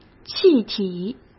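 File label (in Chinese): "气体", Mandarin Chinese; hsk; chinese-flashcards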